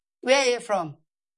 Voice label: Voice Acting es F

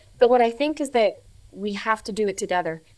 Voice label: Voice Acting, es, F0

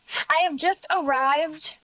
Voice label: Voice Acting es

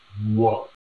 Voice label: Voice Acting, es, M

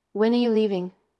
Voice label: Voice Acting es

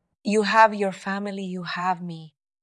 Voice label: Voice Acting es F

